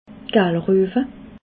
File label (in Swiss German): "galruewe", Alsace